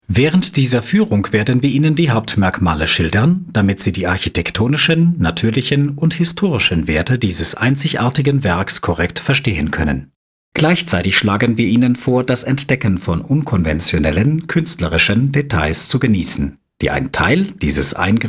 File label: locutor aleman german voiceover; speaker tedesco